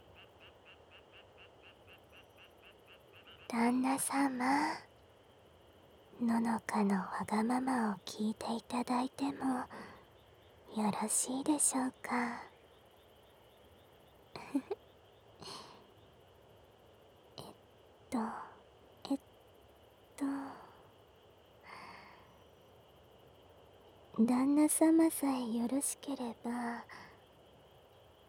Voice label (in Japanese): ふわふわ甘やかし添い寝（囁きブースト 夏の夜Ver 効果音なし）; 乃々佳のふわふわ甘やかし添い寝